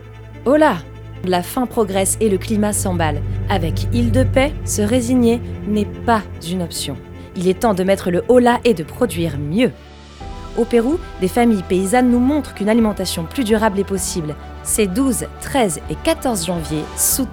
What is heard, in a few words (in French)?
spot radio; Iles de paix